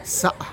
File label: "za" brother from same land